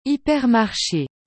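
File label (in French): "hypermarche", French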